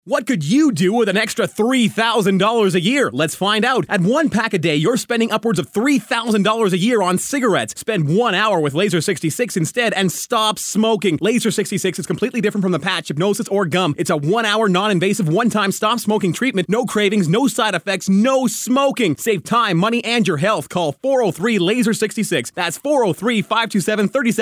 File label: radio ad